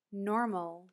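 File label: "normal", English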